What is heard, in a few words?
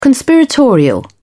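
"conspiratorial", English, British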